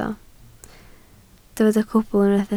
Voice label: "cwbl", Welsh